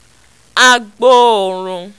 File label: "agboorun", Yoruba